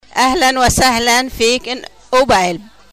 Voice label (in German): arabisch